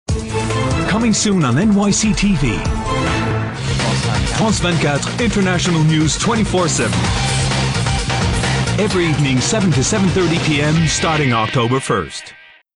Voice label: US news reporter voix off voice over; France24; NYC